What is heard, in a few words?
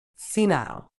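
"senile", British English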